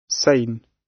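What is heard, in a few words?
"sane", British; Crime and punishment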